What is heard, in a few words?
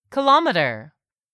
"kilometer", English; 3000 words